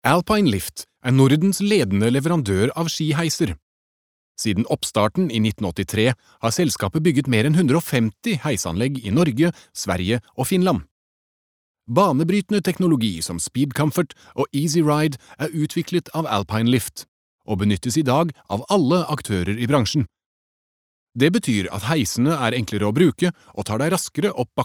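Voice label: Norwegian corporate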